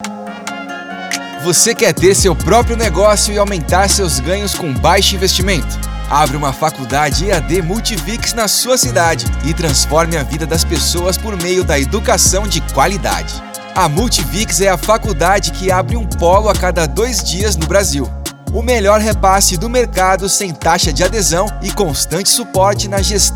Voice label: Conversado Chic; Multivix EAD

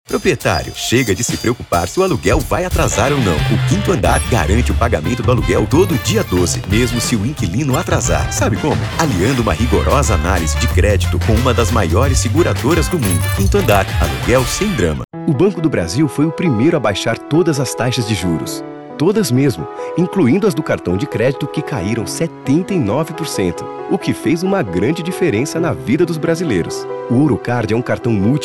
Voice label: Repertorio LOC NATURAL

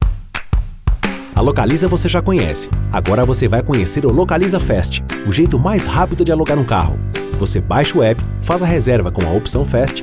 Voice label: spot Relax; Localiza Fast

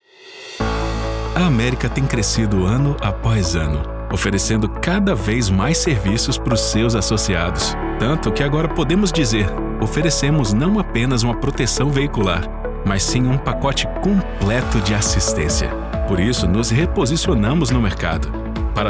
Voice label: Institucional

